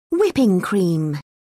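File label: "whipping cream", British English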